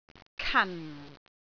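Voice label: "kan4", Cantonese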